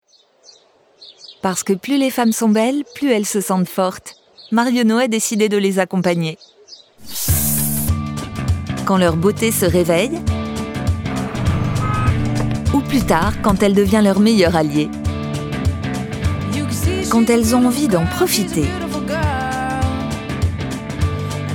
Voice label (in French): institutionnel souriante complice; MARIONNAUD